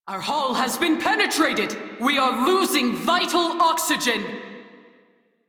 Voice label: Crew See Breach, Female; Dialog; en; Warframe; Railjack Crew; New Loka